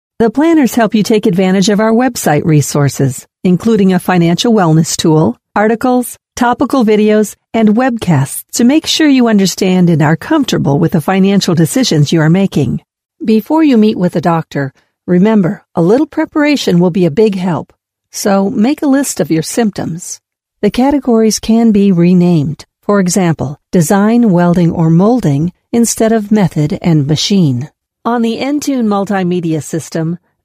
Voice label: ELearning

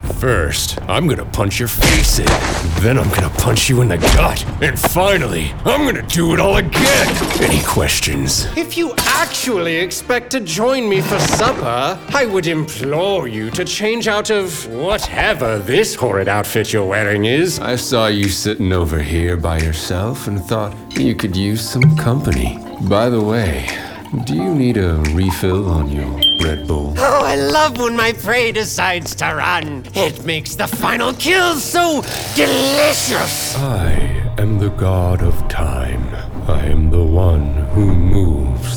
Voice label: Animation

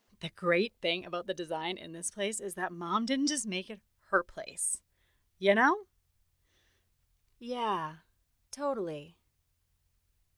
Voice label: character

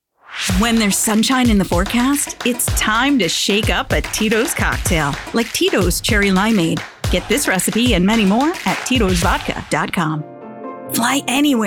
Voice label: Commercial Demo

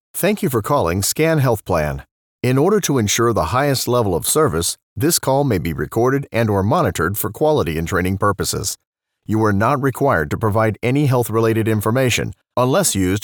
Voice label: IVR Health Plan; SCAN Health Plan

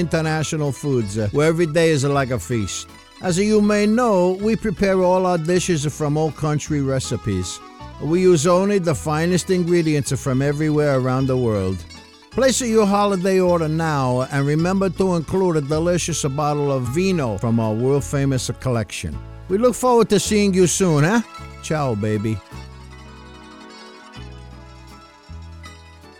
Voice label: Commercial Italian Accent; GINOS

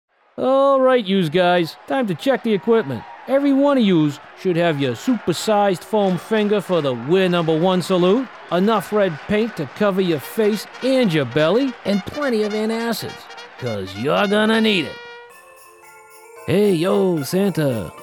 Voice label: Character voices

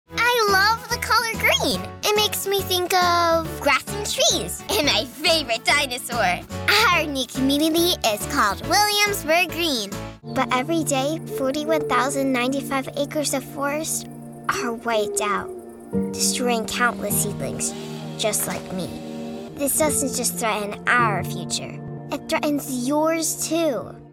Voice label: VO Commercial

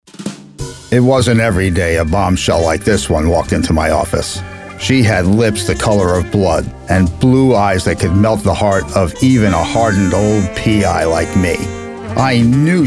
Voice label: Narrative

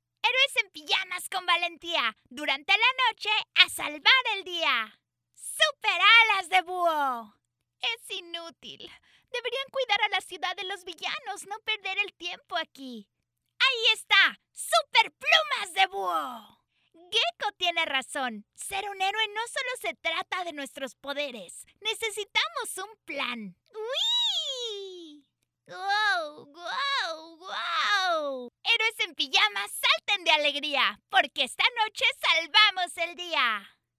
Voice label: cartoon; Heroes en Pijama cartoon demo

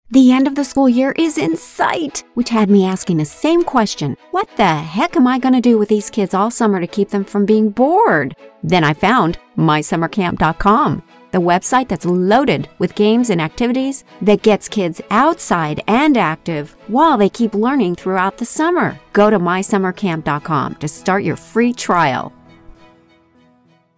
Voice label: camp mom

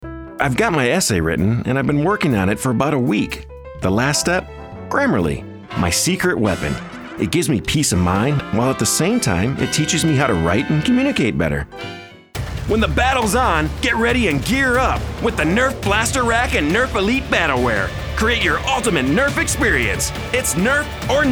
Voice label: Commercial Demo

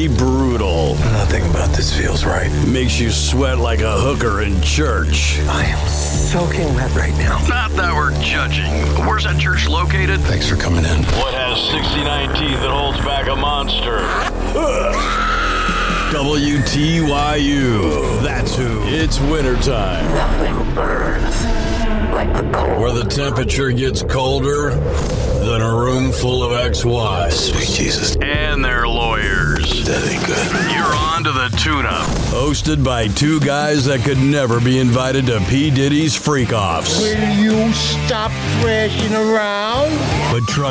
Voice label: Rock Radio Imaging

